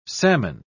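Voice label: "psammon", British English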